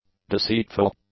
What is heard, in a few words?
"deceitful", english; adjectives